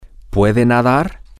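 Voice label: can you swim, Spanish, male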